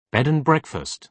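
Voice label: "bed and breakfast", British English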